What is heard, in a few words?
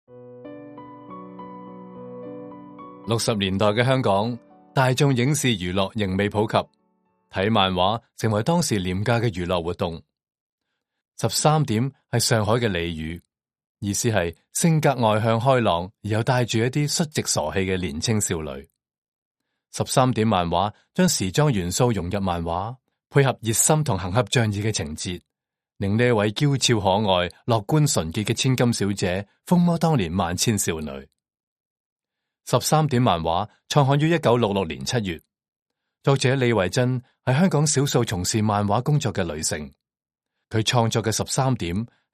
Cantonese